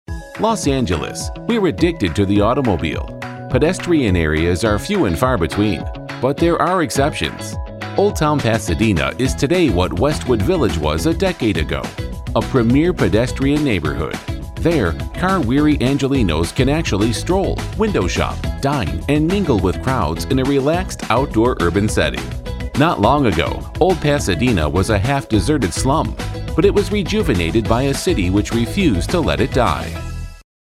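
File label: narration, Voiceover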